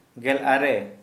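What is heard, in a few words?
nineteen, Santali, male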